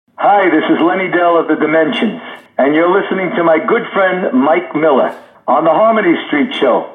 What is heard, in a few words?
promo